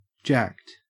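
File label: "jacked", Australian English; pronunciations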